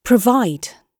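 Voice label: "provide", British English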